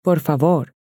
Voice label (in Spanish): "por favor", Spanish